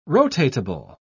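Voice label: "rotatable", British English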